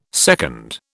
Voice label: "seconde", British